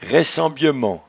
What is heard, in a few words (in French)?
"raissembyement", patois jura